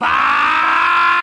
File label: "bah", male